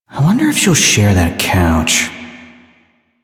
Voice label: Citizen Male, en, Mall; Citizens; Warframe